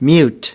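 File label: "mute" US English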